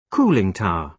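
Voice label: "cooling tower", British English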